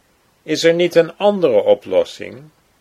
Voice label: "is er niet een andere", Dutch

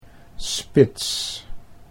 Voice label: "spits", Dutch

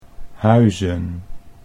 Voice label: "huizen", Dutch

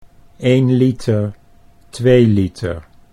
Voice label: "1 liter 2 liter", Dutch

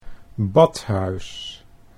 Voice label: "badhuis", Dutch

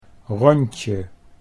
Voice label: "rondje", Dutch

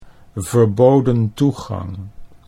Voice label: verboden toegang, Dutch

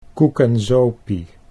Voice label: "koekenzopie", Dutch

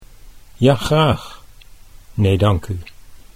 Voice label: "ja graag nee", Dutch

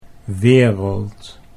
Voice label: "wereld", Dutch

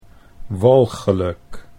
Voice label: "walgelijk", Dutch

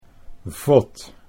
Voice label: "vod", Dutch

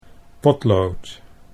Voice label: "potlood", Dutch

